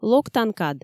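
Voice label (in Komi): "локтан кад", Komi, female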